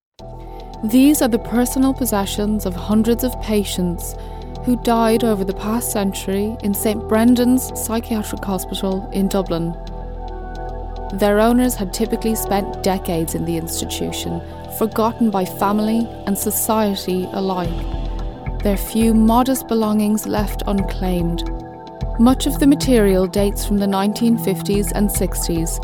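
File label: English, Documentary Irish; GoLocalise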